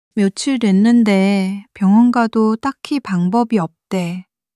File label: sadness